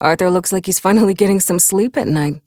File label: Ambient Barks, en; Warframe; Mall Romance; Lettie